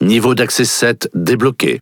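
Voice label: French Speech Access Level; World Of Warships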